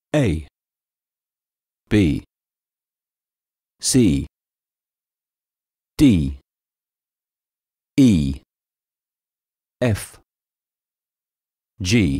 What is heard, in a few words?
english alphabet english abc british english; speak english; learn english